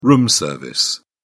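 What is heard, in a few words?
"room service", English, British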